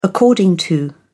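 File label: "according to", British English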